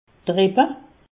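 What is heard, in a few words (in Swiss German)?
"rippe", Alsatian; medecine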